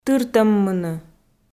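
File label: "тыртӧммыны", Komi, female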